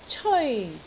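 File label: "ceoi1", Cantonese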